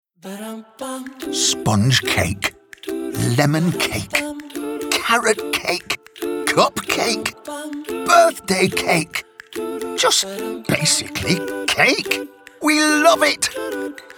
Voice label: male english northern accent government smart meter commercial; english northern accents